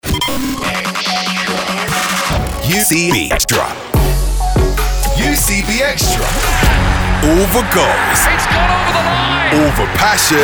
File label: TV radio promo imaging